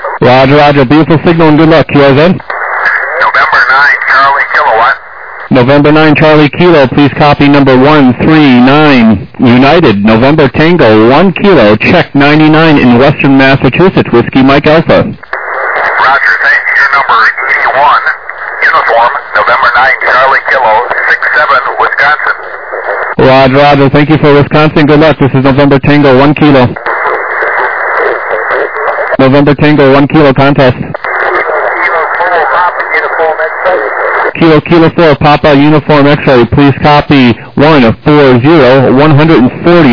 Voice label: SSB USB 14MHz